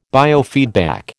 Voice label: "biofeedback", American

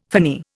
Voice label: "finny", Indian

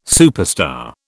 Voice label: "superstar", British

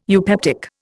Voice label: "eupeptic", Indian

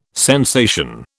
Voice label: "sensation", British

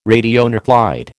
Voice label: "radionuclide", American

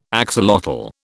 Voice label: "axolotl", British

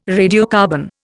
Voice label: "radiocarbon", Indian

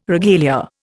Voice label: "regalia", Indian

